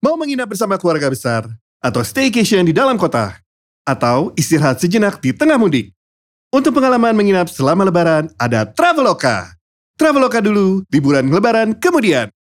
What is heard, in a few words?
CASUAL INDONESIA